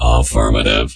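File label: "affirmative", computer voice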